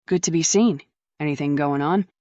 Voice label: fem